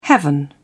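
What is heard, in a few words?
"heaven", British English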